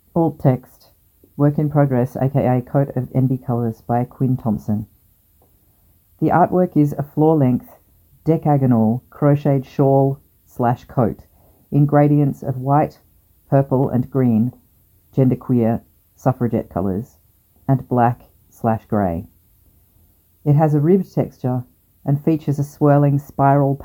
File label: alt text audio description; Living My Best Life art exhibition